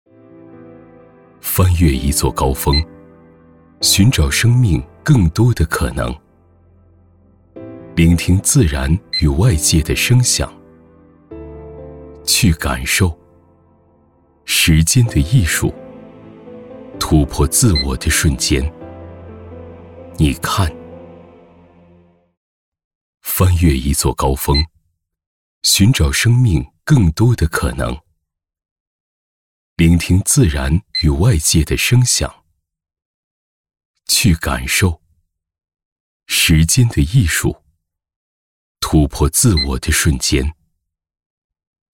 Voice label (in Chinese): 男, 形象片